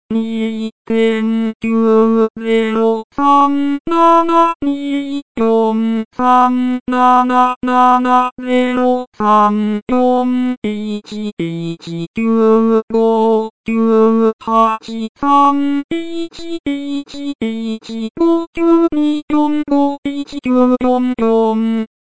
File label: helium slow